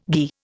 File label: "ghi", Indian